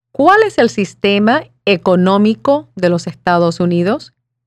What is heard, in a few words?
Spanish